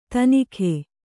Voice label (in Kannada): "ತನಿಖೆ", Kannada